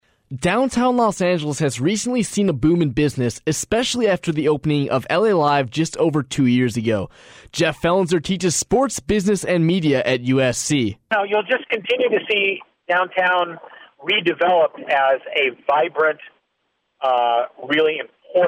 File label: voxpop; NFL